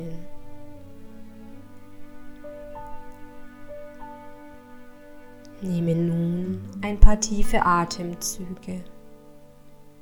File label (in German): PMR mit Musik